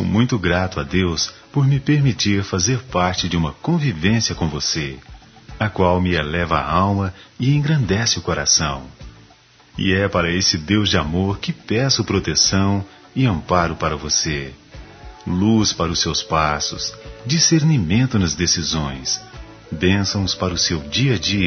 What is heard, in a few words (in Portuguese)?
Aniversário Otimismo M; Telemensagens 2017; Aniversários; Aniversários diversos